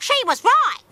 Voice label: she was right female; Voice